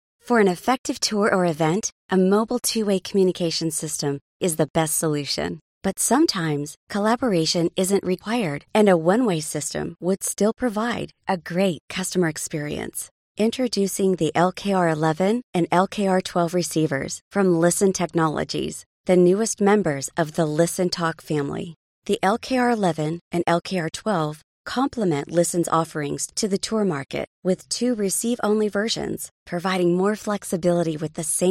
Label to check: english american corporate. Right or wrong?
Right